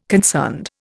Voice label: "concerned", Indian